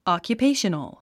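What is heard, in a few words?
"occupational", English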